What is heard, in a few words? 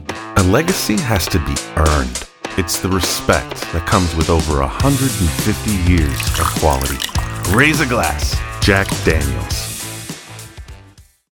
Commercial; Jack Daniels